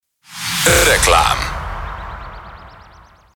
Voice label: hungarian tv shows